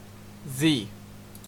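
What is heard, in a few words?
"zee", Canadian English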